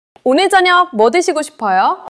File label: neutral, monologue